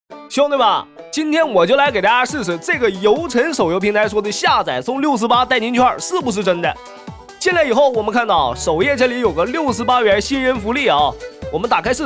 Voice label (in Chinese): 信息流; 天岁